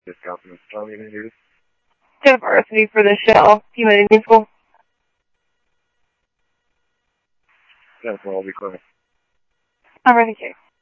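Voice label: police radio dispatch, Scottsdale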